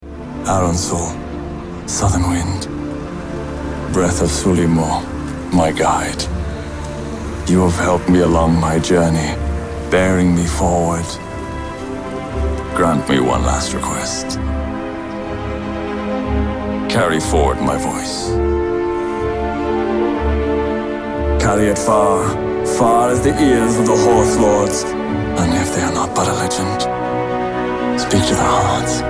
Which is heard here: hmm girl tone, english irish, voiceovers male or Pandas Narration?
english irish